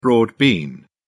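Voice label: "broad bean", British English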